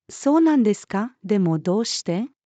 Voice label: conversation, department store; japan study; jpnavi one